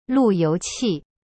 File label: "lu4you2qi4", Chinese; cyberchinese